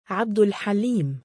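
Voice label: "3abdul7aliim" male, Arabic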